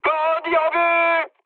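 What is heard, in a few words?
enemy detected, mood high, French; War Thunder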